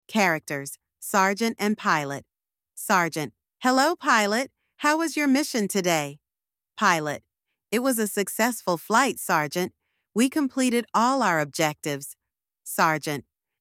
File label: dialog; air force ranks and roles